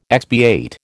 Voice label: "expiate", American

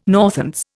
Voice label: "Northants", Indian

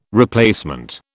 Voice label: "replacement", British

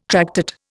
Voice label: "tractate", Indian

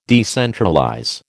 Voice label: "decentralize", American

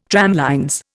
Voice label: "tramlines", Indian

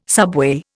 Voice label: "subway", Indian

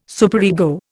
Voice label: "superego", Indian

